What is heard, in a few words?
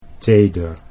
"teder", Dutch